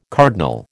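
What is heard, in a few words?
"cardinal", American English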